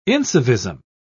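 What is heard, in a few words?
"incivism", British English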